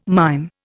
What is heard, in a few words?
"mime", Indian